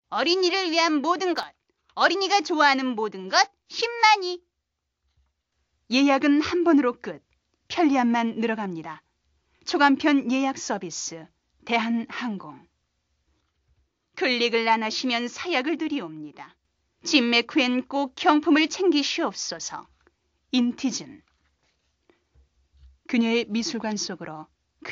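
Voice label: Korean, female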